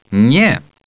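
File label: "no", male; TALKNOW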